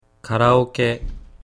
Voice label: "karaoke", Japanese